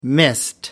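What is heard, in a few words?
"mist", British English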